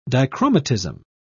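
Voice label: "dichromatism", British English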